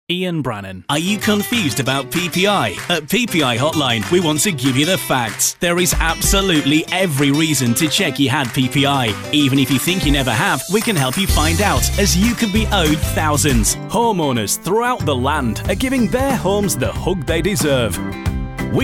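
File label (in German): English UK Mix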